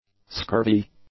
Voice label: "scurvy", English; adjectives

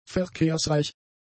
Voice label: "verkehrsreich", German; adjectives

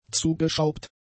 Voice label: "zugeschraubt", German; adjectives

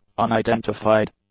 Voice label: "unidentified", english; adjectives

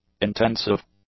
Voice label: "intensive", English; adjectives

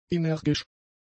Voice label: "energisch", German; adjectives

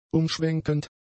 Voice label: "umschwenkend", German; adjectives

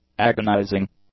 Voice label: "agonizing", English; adjectives

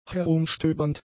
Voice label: "herumstöbernd", German; adjectives

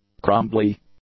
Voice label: "crumbly", English; adjectives

